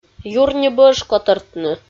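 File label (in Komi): "юр ни бӧж котӧртны" Komi, female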